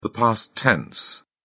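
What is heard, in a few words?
"past tense", British English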